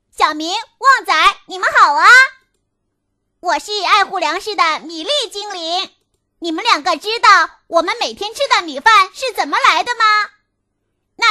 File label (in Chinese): 女, 角色, 小精灵